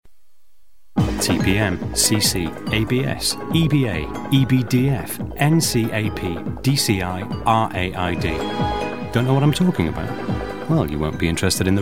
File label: Commercial